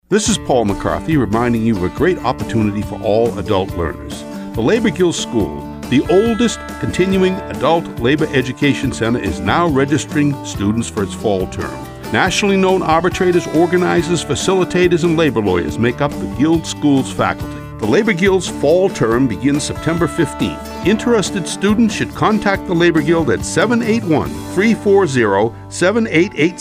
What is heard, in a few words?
PSA; WATD; Labor Guild